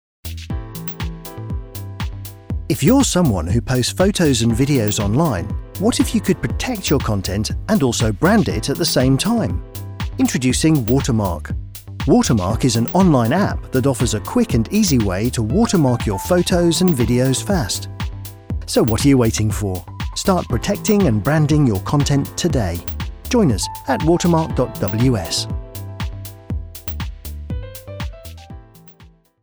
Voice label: Corporate Explainer